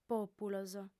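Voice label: "pòpulos", female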